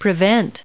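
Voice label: "prevents", us English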